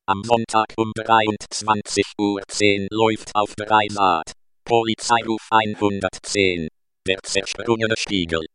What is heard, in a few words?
Espeak